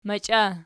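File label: "machia", nominative, Archi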